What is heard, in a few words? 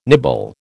"nibble", American